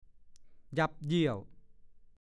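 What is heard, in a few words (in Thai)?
"ยั่บเยี่ยว" ภาษาโคราช; เล็บเหยี่ยว (พรรณไม้ชนิดหนึ่งมีผลเล็ก ๆ รับประทานได้)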